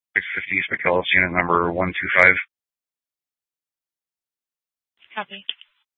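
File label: police department dispatch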